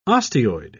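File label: "osteoid", British English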